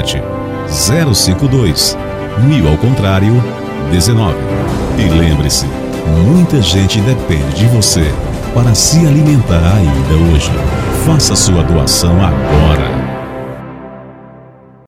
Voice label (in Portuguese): Comercial Ong Vida